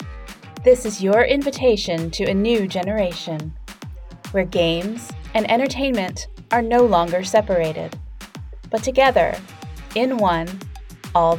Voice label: Promo Voice Demo